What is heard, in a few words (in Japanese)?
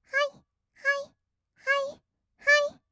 はいはい, kawaii voice; 合いの手; 1200 kawaii voice!!; ASMR